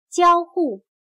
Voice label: "交互", chino

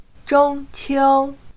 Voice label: "中秋", chino

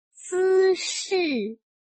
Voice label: "姿势", 汉语

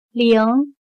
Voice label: "铃", chino

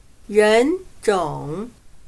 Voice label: "人种", chino

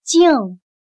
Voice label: "静", chino

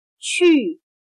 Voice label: "趣", chino

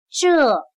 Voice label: "这", chino

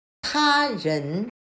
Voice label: "他人", chino

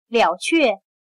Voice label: "了却", chino

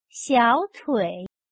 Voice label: "小腿", 汉语

